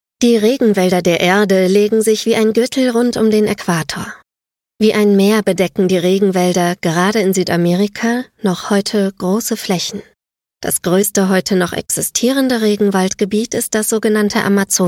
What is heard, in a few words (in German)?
Dokumentation, Comment (Kommentar), German; Dokumentation I Regenwälder; voice